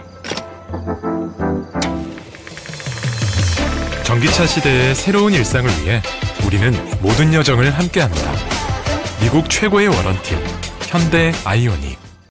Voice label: 따뜻 밝은; 현대아이오닉

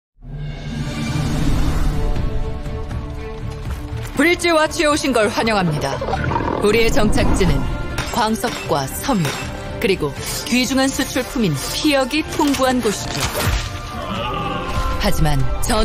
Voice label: 시크한 힘찬 게임홍보

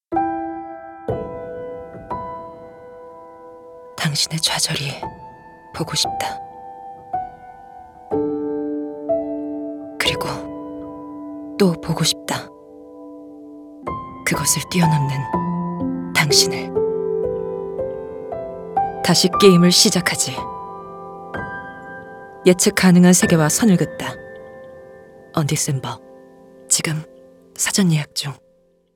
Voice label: 차분한 분위기있는

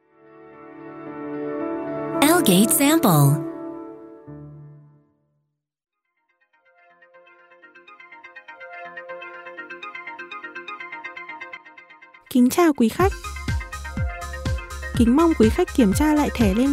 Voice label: 베트남 여 밝은 톤

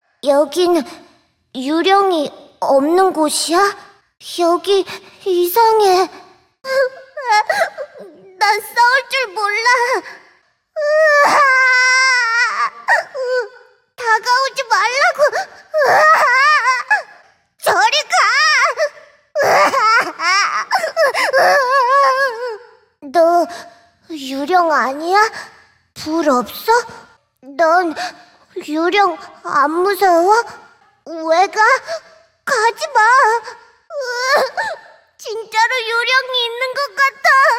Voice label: 겁 많은 울보 양파맛 쿠키 우울한 남아; 쿠키런 킹덤

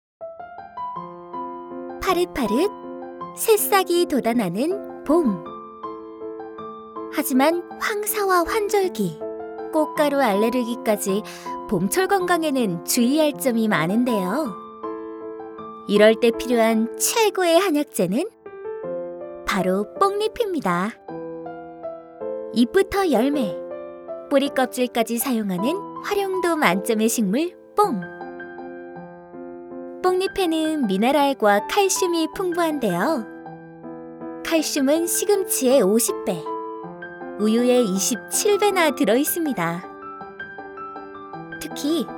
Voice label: 나레 밝고 차분한

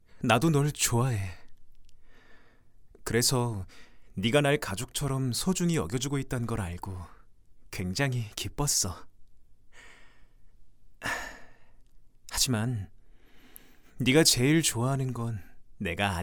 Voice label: 20대 청년 따뜻한 부드러운

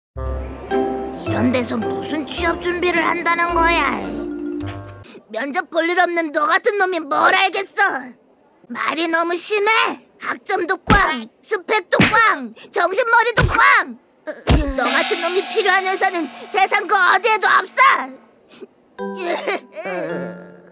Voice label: 약 긁는 목소리 남 게으른 남아 10대; 타코씨; 붕어씨

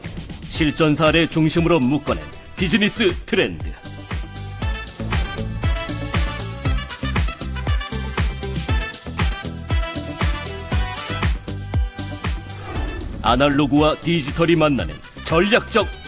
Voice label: 광고 관광 이러닝; 관광이러닝센터

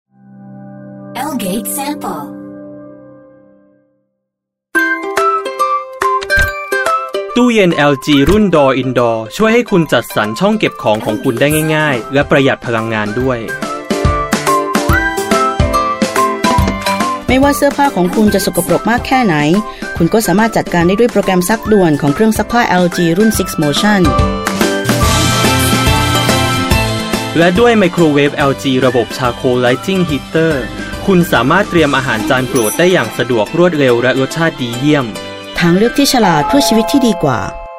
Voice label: 태국남 나레이션 밝은톤; LG

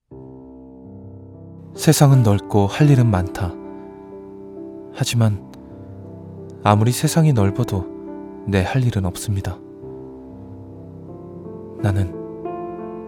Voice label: 다큐 차분한 진지한; 나는인턴이다

